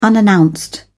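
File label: "unannounced", British English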